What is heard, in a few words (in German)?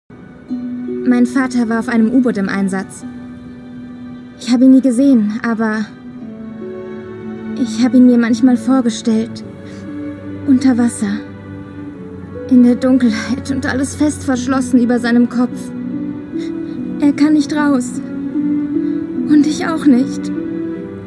Serie Synchron; Call the Midwife